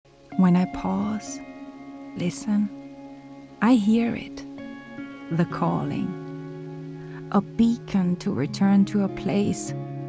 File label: Commercial